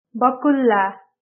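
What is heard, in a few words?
"crane", Nepali; grade4; vertebrates invertebrates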